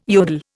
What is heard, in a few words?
"yodel", Indian